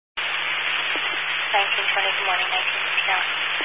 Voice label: Highway Patrol, Phoenix Metro West Area, scanner